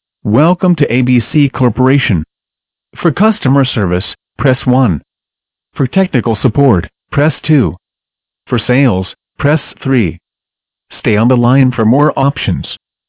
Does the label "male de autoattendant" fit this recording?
Yes